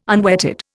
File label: "unwetted", Indian